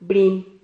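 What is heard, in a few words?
"brin", Spanish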